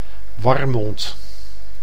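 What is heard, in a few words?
"Warmond", Dutch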